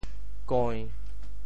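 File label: "koi~1", Teochew; 白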